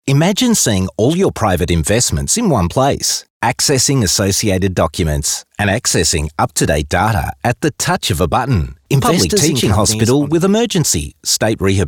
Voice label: Natural